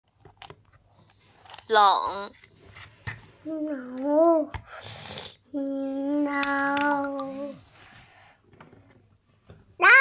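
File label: "leng3", Mandarin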